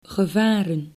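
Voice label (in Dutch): "gevaren", Dutch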